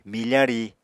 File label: "mifa ri", Apatani